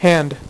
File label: "hand", dealer's speech; PowerPOKER Demo